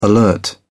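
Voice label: "alert", British English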